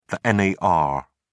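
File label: "nar", British English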